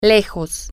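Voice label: far from, spanish, female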